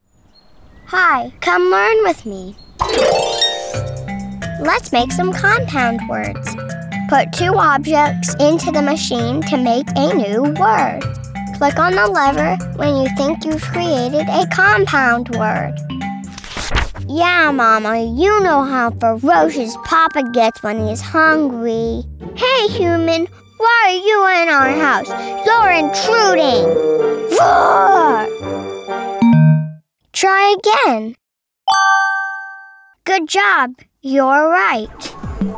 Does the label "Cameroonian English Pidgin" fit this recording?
No